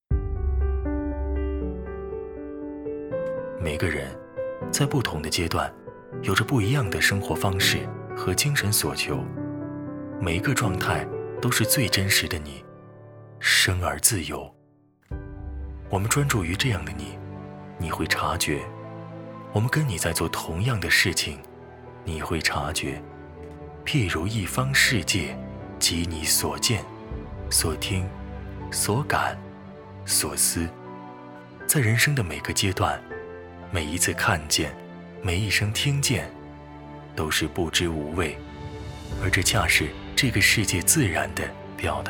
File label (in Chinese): 男 旁白 自然情感; 每个人